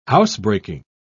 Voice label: "housebreaking", pronounce

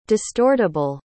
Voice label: "distortable", pronounce

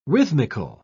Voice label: "rhythmical", pronounce

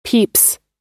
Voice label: "peeps", pronounce

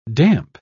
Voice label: "damp", pronounce

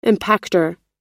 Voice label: "impactor", pronounce

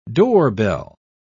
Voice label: "doorbell", pronounce

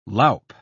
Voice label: "loup", pronounce

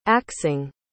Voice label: "axing", pronounce

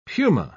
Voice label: "puma", pronounce

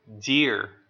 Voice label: "deer", pronounce

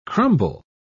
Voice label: "crumble", pronounce

